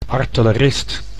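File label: "artellerist", Dutch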